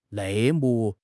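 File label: "内幕", Mandarin Chinese; HSK6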